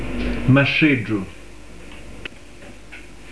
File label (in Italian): "mastreddru", pronuncia, dizionario carigliaro